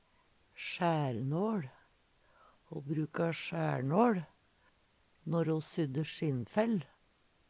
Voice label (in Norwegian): sjaernal, Numedalsmål